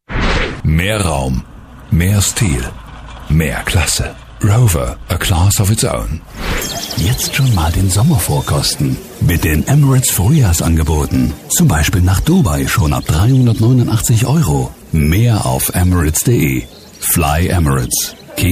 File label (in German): Werbung div TV Radio Collage